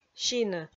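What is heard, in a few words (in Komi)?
"сины", Komi, female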